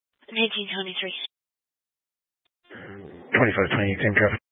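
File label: Sheriff Dispatch, Scanner audio recording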